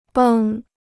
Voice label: "绷", Chinese

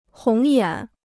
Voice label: "红眼", Chinese

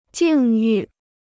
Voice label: "境遇", Chinese

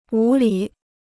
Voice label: "无理", Chinese

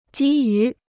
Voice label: "基于", Chinese

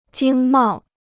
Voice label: "经贸", Chinese

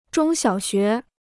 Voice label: "中小学", Chinese

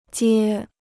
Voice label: "接", Chinese

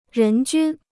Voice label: "人均", Chinese

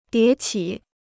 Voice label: "迭起", Chinese

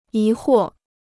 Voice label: "疑惑", Chinese; to distrust

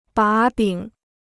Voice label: "把柄", Chinese

